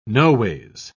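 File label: "noways", British English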